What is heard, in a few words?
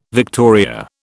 "victoria", British